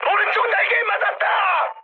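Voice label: Korean right wing mood high, speech; War Thunder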